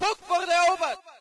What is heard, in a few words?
ger point captured; ambience; Source Engine; dod